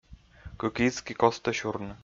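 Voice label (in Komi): "кык изки костӧ сюрны", Komi, male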